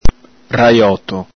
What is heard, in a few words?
"rajoto", Venetian